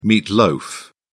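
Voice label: "meat loaf", British English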